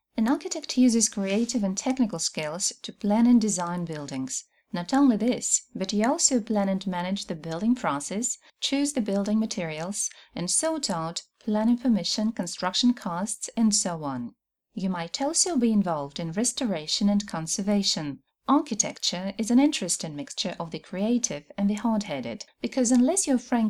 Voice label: Voice Eng